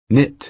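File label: "knit", American English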